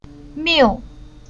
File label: "Miu", Chinese